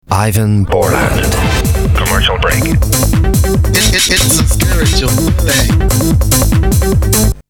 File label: Radio Imaging